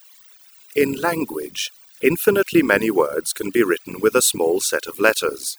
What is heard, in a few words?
single talker SNR 19.6902